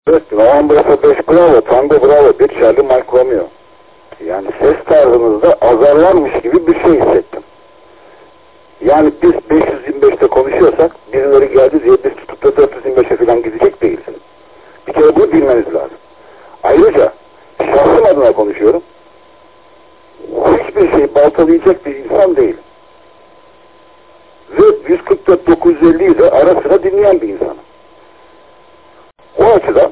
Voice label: QSO; KONFERANS ODASINA SATASMALAR